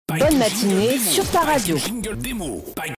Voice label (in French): Bonne matinée sur ta radio voix femme